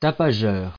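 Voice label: "tapageur", French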